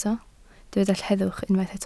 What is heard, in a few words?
"heddwch", Welsh